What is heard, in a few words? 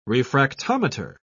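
"refractometer", English, uk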